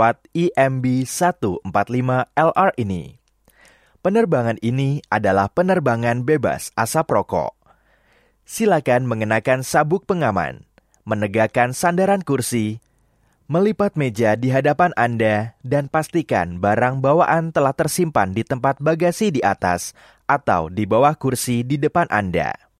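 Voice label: Bahasa Indonesia; Airfast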